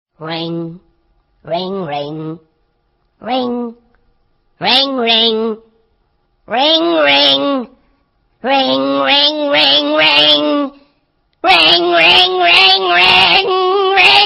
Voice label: ring ring angry funny phone tone